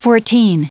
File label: "fourteen", en-us